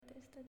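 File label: "Testa", Italian, female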